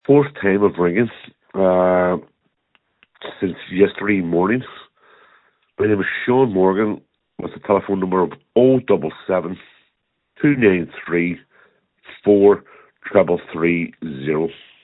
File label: call recording